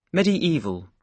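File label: "medieval", British English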